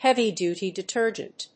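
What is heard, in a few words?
"heavy-duty detergent", English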